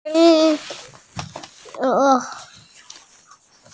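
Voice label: "lao3shi1", female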